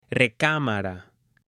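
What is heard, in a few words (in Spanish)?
"recámara", Spanish, male; MUEBLES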